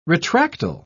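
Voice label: "retractile", British English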